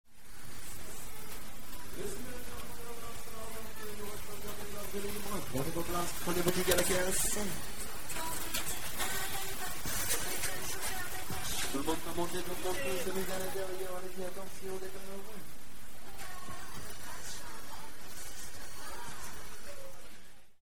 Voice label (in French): FForaine Annonce